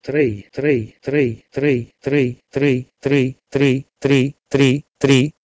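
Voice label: Proto-Indo-European trei to Sanskrit tri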